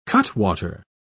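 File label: "cutwater", British English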